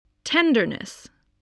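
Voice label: "tenderness", English word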